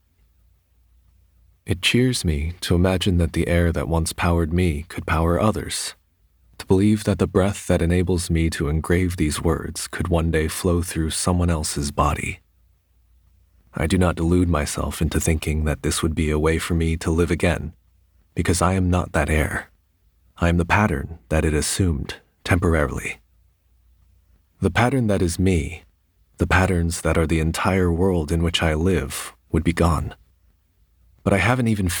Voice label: voiceover animation; New York